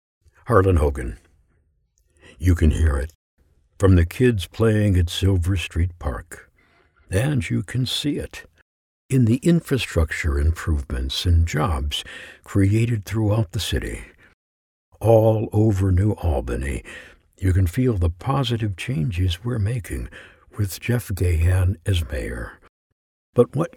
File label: men political